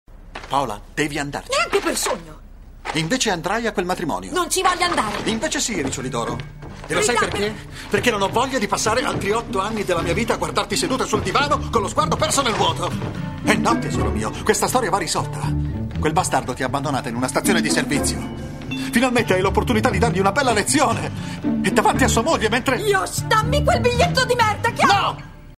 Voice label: male Italian tv comercial; ideasonora